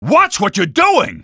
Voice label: watch what, male; Half-Life 2